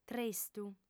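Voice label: "tristu", lingua sarda, female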